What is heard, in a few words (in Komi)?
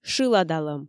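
"шыладалӧм", Komi, female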